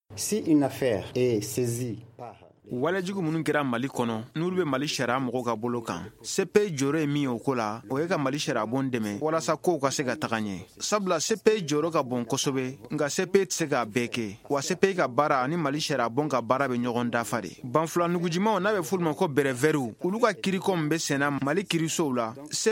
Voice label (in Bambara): magazine bamanankan